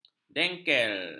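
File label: "dengkel", Malay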